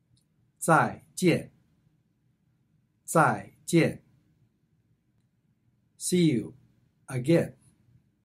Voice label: zai jian, How to say Bye in Chinese